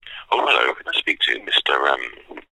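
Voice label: human male english says oh hello can i speak to mr umm telephone receiver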